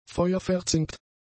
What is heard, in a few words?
"feuerverzinkt", German; adjectives